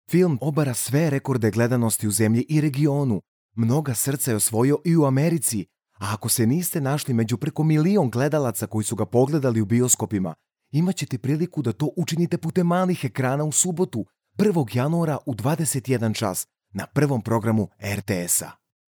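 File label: Serbian male voice over, upbeat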